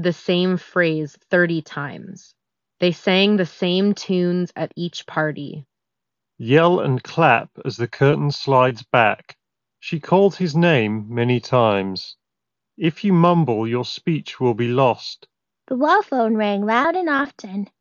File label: Sony WH-CH520 Ideal microphone sample